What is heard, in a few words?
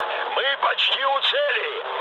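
Russian near waypoint mood high, speech; War Thunder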